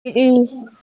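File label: "qie2zi5" Mandarin Chinese, female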